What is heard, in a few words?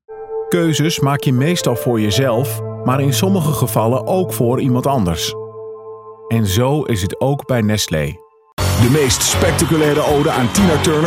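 Dutch, general; GoLocalise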